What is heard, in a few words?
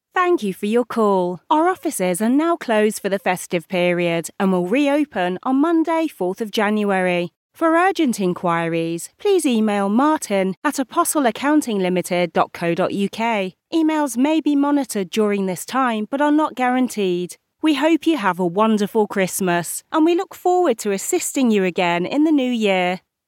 telephone